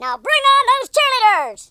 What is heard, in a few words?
victory, US Sports speech